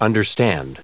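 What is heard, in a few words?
"understand", US English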